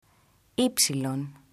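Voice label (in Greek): "ypsilon", Greek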